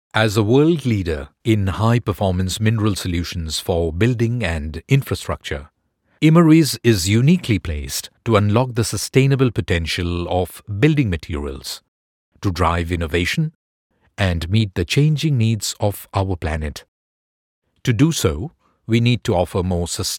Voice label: ENGLISH CONVERSATIONAL

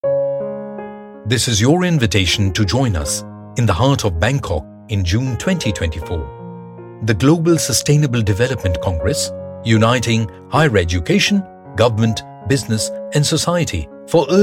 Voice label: ADVERT ENGLISH

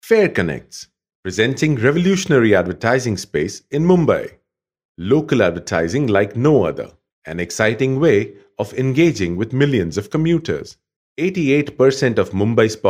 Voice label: explainer eng

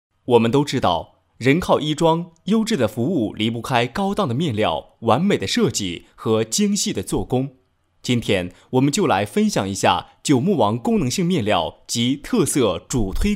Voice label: Ads male

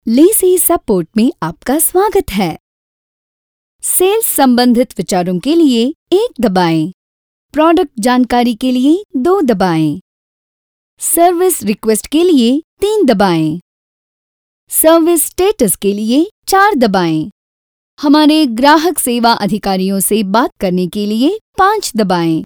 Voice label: IVR Hindi

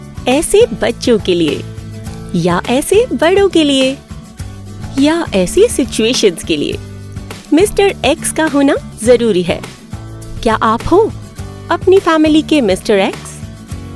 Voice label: Policy ad; HINDI

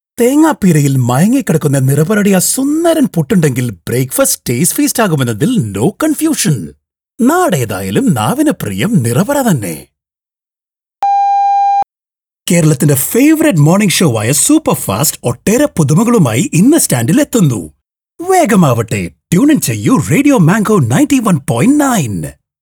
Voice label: Advertisements Malayalam

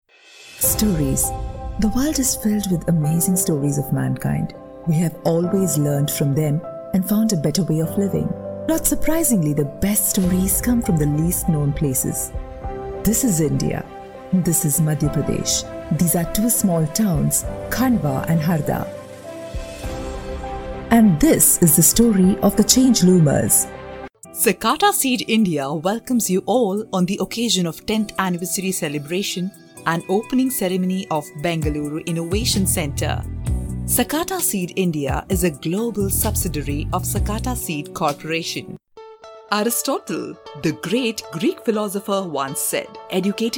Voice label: English Narration

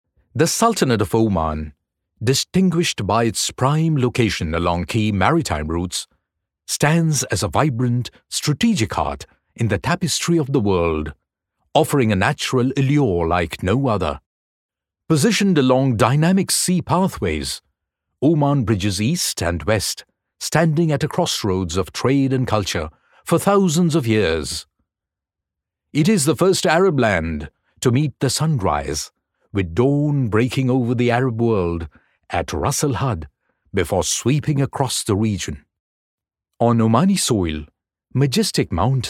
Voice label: British video

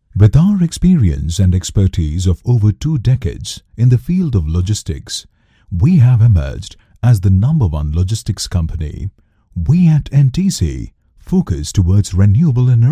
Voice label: Documentary English

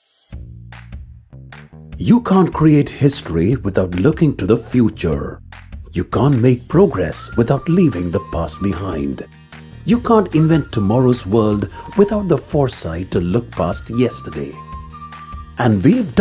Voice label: Corporate AV Engineering English; Wipro

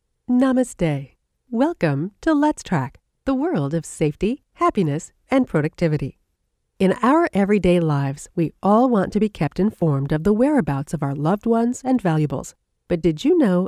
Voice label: explainer

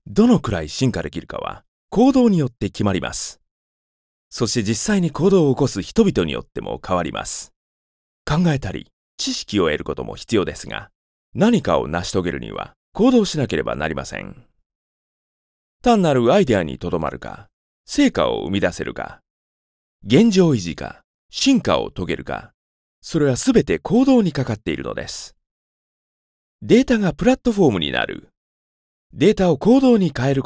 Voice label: corporate

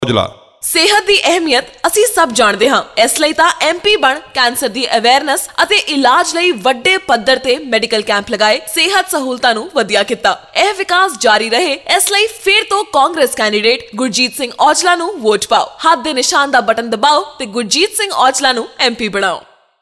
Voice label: punjabi

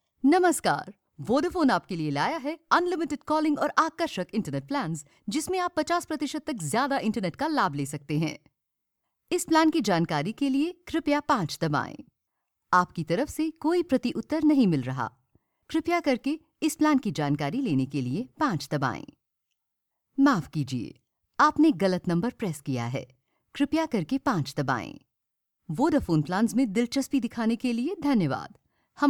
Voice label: Hindi Mobile IVR

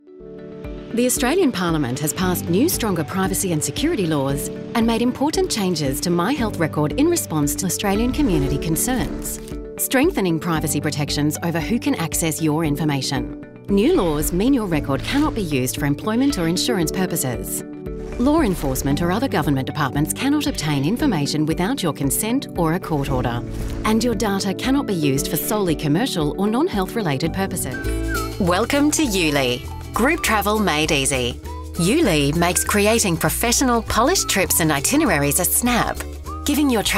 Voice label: Explainer

